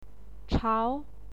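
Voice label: "chao", Mandarin Chinese, second tone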